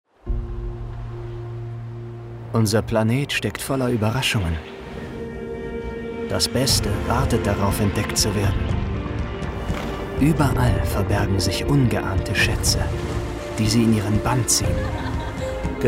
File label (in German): imagefilm; saudi